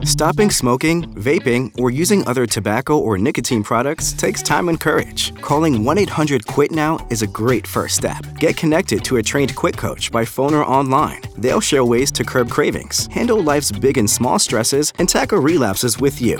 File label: Cessation ENG Radio